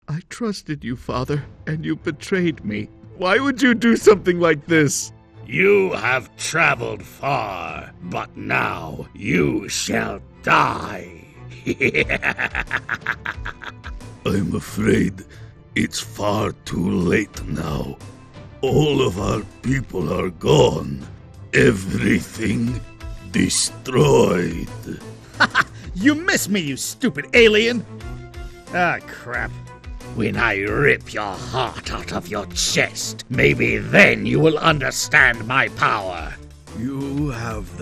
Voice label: Gaming, Male voices